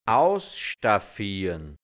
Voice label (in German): "ausstaffiern", hianzisch, Dialekt, Burgenland; ausstatten; festlich einkleiden